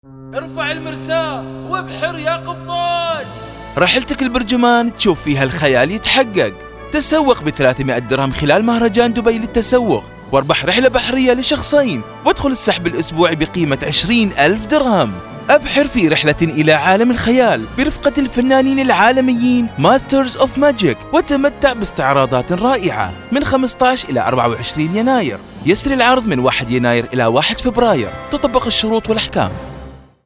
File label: Arabic Narration Voice Overs